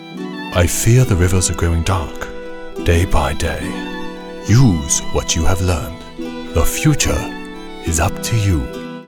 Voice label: Animation Wizard Mentor

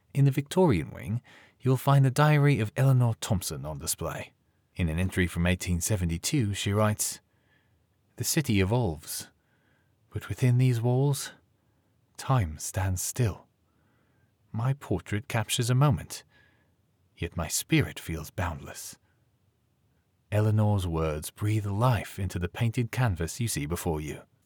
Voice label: Audio Guide British Character Diary

